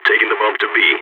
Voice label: going to plant bomb b, balkan